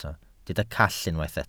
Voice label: "call", Welsh

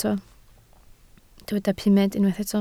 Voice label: "pumed", Welsh

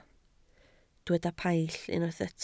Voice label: "paill" Welsh

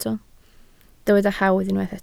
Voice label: "hawdd" Welsh

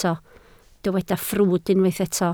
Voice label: "ffrwd", Welsh

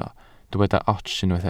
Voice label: "ots", Welsh

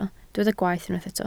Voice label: "gwaeth", Welsh

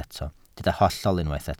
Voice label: "hollol" Welsh

